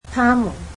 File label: cantonese them